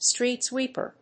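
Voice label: "street sweeper", English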